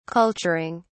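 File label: "culturing", British English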